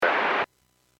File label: Aviation 121.5 Emergency